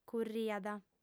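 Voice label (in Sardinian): "curriat", female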